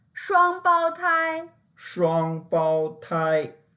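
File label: "shuang1bao1tai1", Mandarin Chinese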